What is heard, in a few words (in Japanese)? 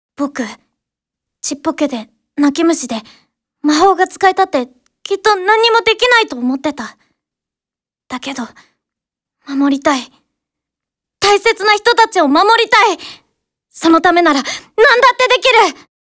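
少年, ボイスサンプル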